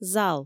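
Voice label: "зал", Komi, female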